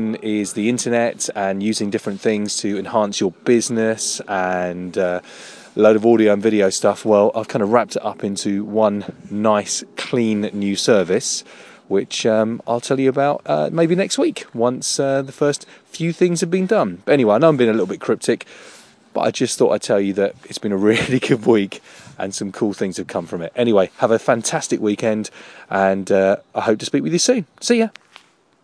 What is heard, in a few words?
voiceover